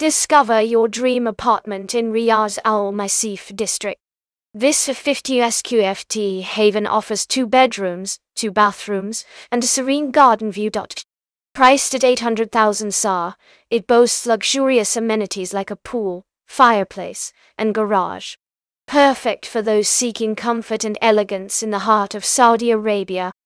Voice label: narration audio